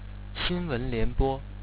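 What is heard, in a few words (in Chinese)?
"xinwenlianbo", Chinese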